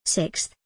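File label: "SIXTH", English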